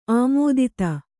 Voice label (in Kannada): "ಆಮೋದಿತ", Kannada